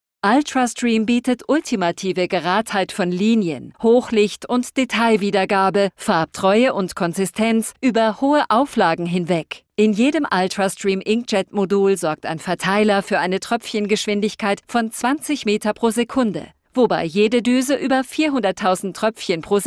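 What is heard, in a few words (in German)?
Produktfilm zügig dynamisch; kodak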